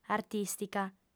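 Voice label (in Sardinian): "artìstica", female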